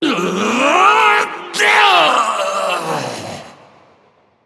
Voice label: Male Ethereal Death

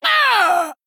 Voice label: Female Troll Death

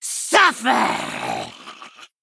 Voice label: Male Gnome Attack

